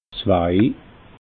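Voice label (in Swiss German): "zwei", Alsatian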